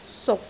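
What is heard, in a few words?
"suk6", Cantonese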